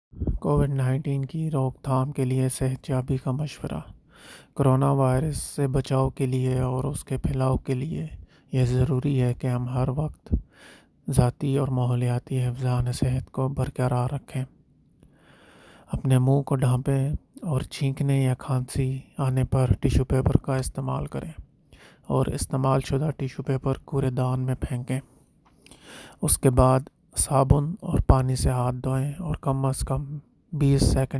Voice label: Health Advice for prevention of COVID-19 Urdu